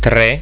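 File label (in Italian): "tre", Italian; getal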